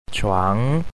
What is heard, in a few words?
"chuang" bed, mandarin